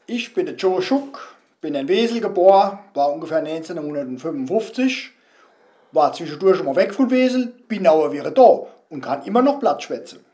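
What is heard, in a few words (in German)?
vorstellung, Hunsrück; Oberwesel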